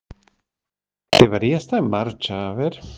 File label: Voice message